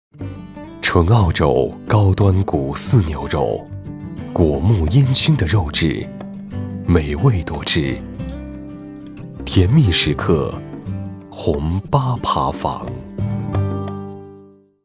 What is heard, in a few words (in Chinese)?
广告高端格调